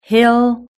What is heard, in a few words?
"hill", british english